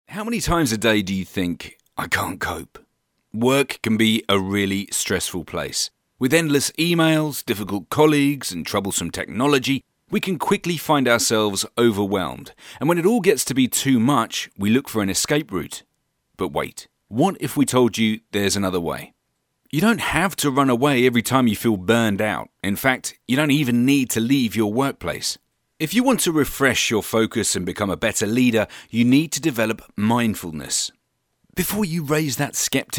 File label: BRITISH MALE ELEARNING